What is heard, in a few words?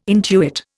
"intuit", Indian